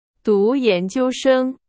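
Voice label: Chinese, example sentence; hsk4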